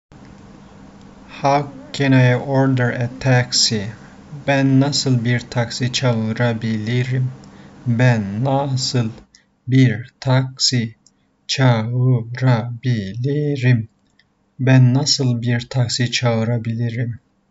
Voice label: how can I order a taxi, Turkish; the talking turkish phrasebook